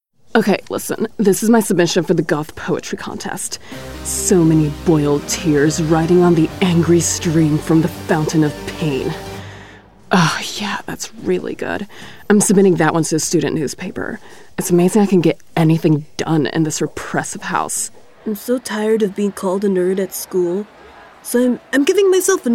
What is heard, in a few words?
Animation